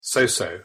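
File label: "so-so" English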